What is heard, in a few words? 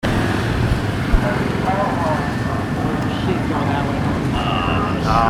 Street speaker voice, Cambodia phnom penh; 2019